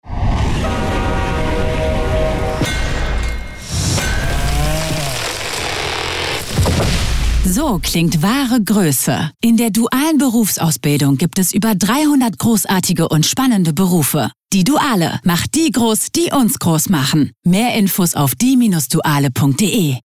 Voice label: Werbung Sprecherin

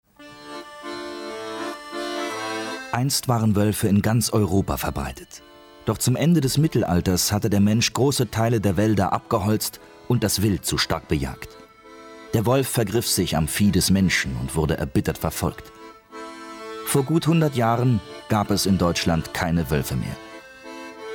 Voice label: doku informativ; LOFT VOICES

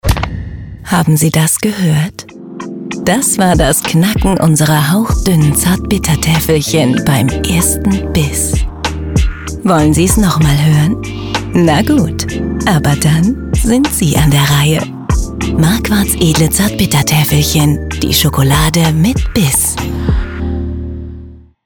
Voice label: Schokolade Werbung geniesserisch sinnlich Stimmprobe; Werbe-Demo: sinnlich, genießerisch; Commercial (Werbung); voice; Marquarts Schokolade; German

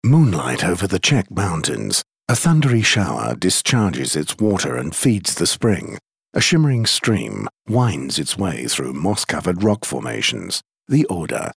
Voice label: uk englisch

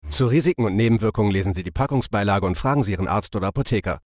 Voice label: Zu Risiken TV Spot; Nasivin

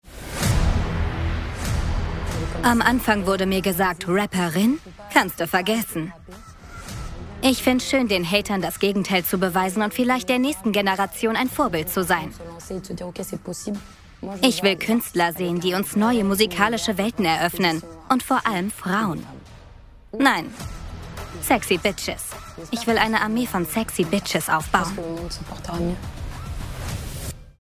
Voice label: Voiceover; LOFT VOICES

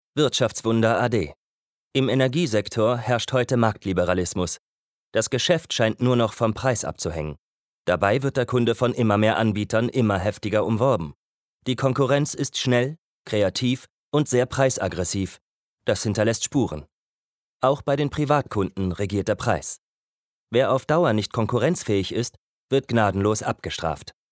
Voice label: nachrichten

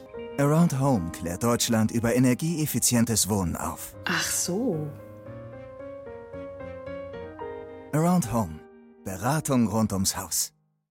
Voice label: Werbung Around Home

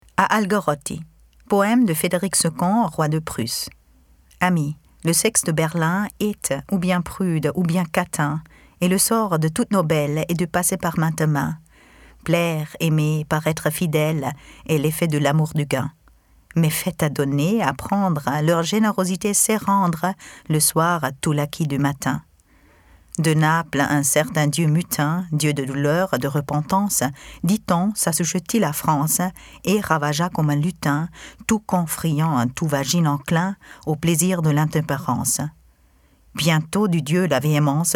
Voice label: poem Original franzoesisch Sprecherin; Friedrich der Grosse

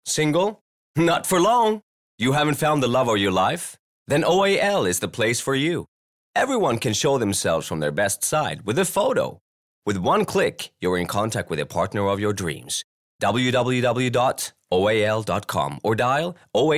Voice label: us englisch; LOFT VOICES

